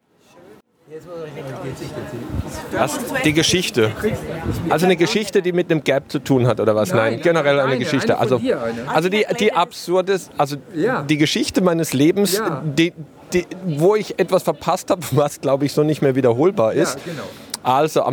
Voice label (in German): stimme m